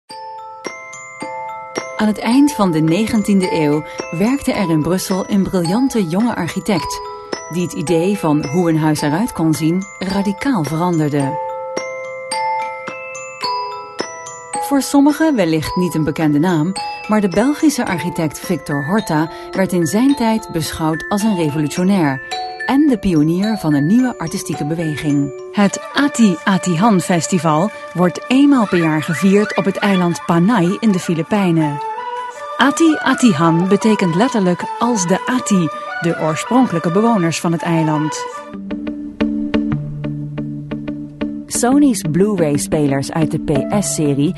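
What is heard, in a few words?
Dutch, female, corporate; voice over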